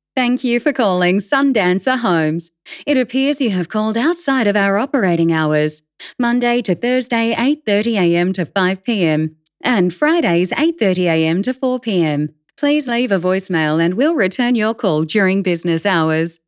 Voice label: After Hours Greeting